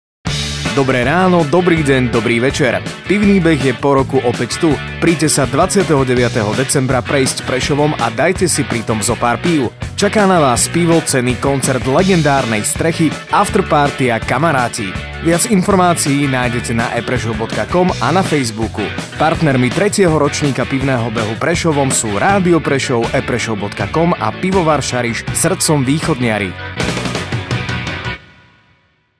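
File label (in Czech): reklami spot; pivnislavnostipresov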